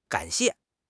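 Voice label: "gan3 xie4", Mandarin Chinese; HSK; HSK4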